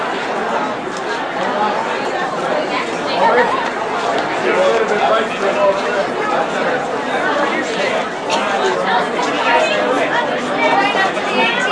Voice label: Ambient Conversation; People; PFx Brick